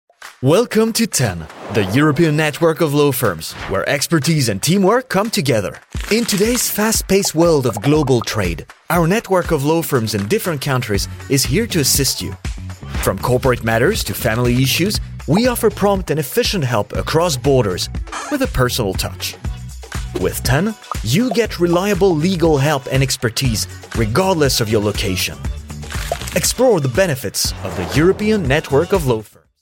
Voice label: informative en